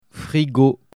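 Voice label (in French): "frigo", French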